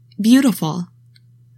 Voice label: "BEAUTIFUL", english; 3000 words